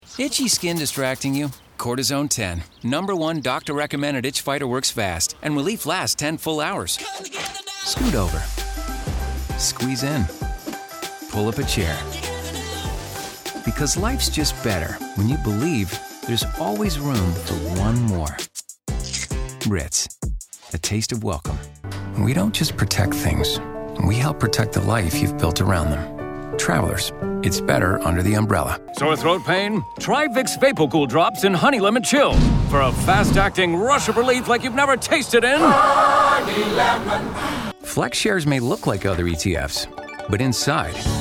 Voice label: Commercial